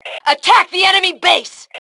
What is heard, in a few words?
Attack Base, Female